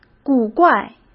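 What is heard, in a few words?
"古怪", Mandarin Chinese; chinese flashcards; hsk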